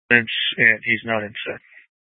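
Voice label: Sheriff Dispatch, Scanner audio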